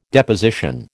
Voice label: "Deposition", American